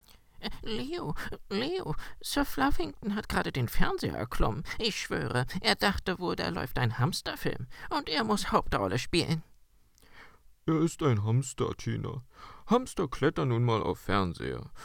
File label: Cartoon